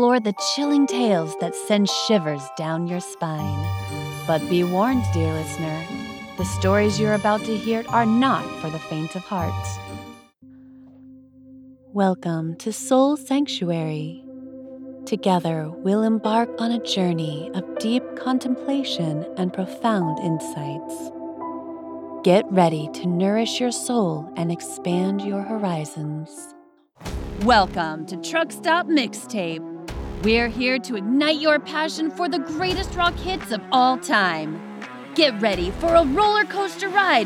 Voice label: Podcast